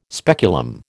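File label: "speculum", American English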